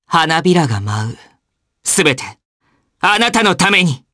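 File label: voice, Japanese; Fluss; kingsraid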